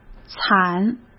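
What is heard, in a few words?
"惨", Mandarin Chinese; hsk; chinese-flashcards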